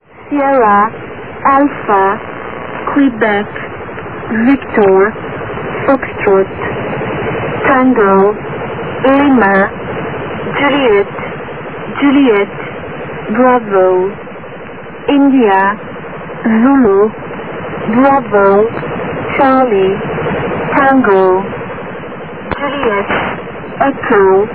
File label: Letters, 4650 kHz